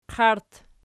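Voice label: "qairt" nominative, Archi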